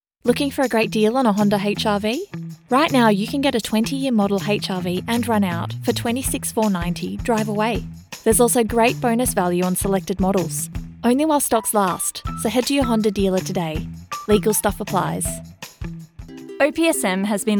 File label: Commercial 4 spots